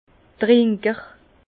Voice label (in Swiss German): "trinker", Bas Rhin; vin; buveur